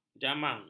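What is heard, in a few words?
"jamang", Malay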